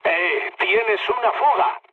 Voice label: damaged water, mood low, speech; War Thunder

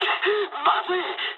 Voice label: jp trick, speech jp

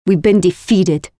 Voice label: Lose, Voiceovers; Project Argo

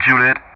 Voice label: "Juliett", ENG; Ka-50; DCS

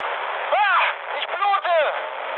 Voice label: damaged pilot, mood med, speech ge; War Thunder

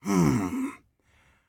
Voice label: scav mutter, voice; Escape from Tarkov; scav1

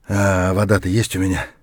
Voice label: dehydrated; Escape from Tarkov

